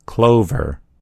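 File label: "clover", American English; gcompris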